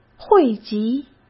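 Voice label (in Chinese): "汇集", Mandarin Chinese; chinese flashcards; hsk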